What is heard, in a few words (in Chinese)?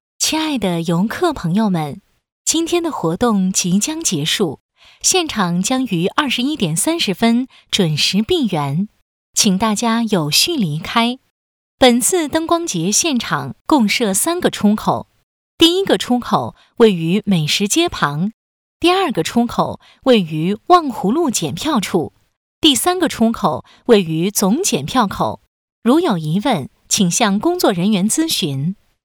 女 专题 广播